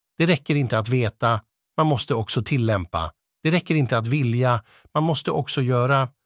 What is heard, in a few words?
swedish, spoken language